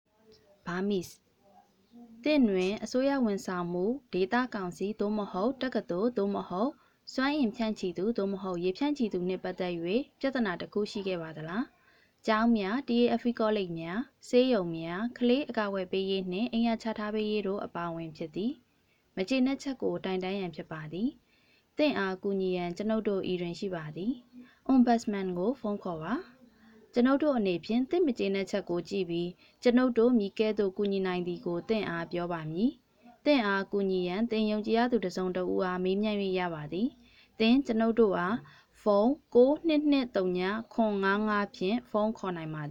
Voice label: Audio Burmese